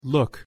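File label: "look", English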